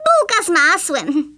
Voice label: FLAWLESS, Speech, Polish; Worms Armageddon